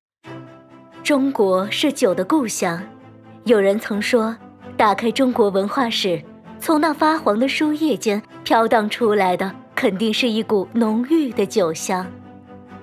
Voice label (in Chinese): 宣传片酒文化